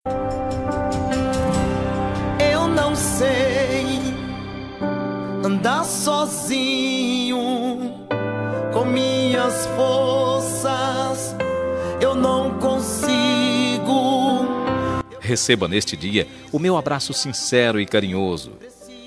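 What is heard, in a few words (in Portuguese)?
Aniversário Evangélico Masculino, telemensagem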